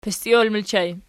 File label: "pistiol", Archi